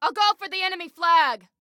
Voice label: Self Attack Flag, Female